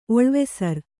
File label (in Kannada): "ಒಳುವಸರ", Kannada